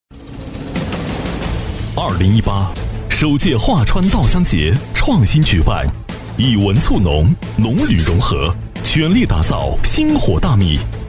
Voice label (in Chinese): 男 招商广告 激昂质感; 桦川稻香节; 磁性质感; 质感磁性